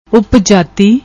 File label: "Uppjati", Punjabi; ਉਪਜਾਤੀ